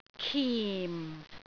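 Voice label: "kim4", Cantonese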